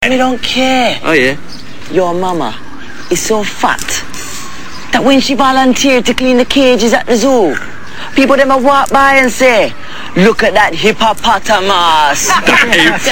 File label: funny yo